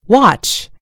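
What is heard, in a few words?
"watch", British English; gcompris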